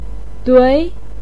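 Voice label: "dui" Mandarin Chinese, second tone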